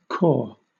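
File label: "cor", British English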